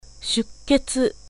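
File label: Japanese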